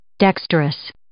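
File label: "dexterous", English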